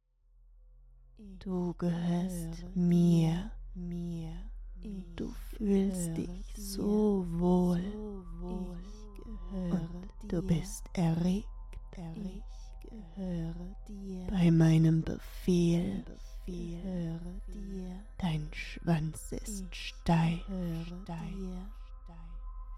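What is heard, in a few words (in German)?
Mantra Ich gehoere dir, erotische Hypnose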